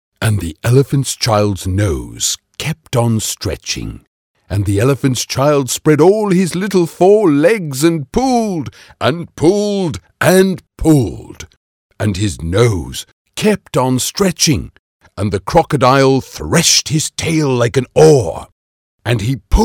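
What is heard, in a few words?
British, voice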